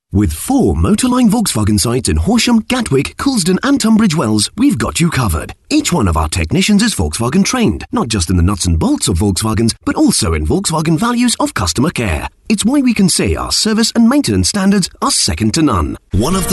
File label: commercial